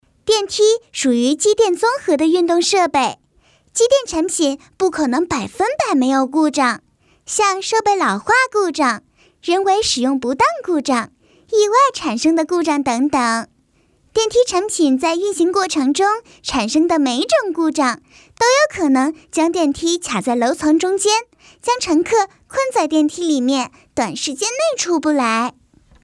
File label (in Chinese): 女童课件